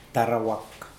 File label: "derwak", Mokilese